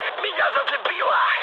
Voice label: damaged pilot, mood med, speech ru; War Thunder

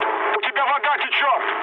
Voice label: damaged water, mood high, speech ru; War Thunder

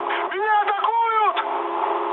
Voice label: aircraft damaged, mood high, speech ru

